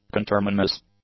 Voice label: "conterminous", English; adjectives